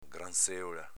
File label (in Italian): "granzeola", dialetto veneto; s.f. grancevola (crostaceo)